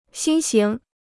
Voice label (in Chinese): "新型", Chinese